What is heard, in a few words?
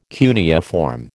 "cuneiform", American